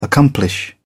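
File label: "accomplish", British English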